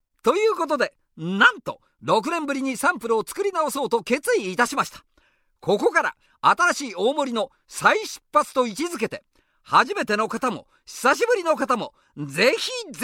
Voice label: narration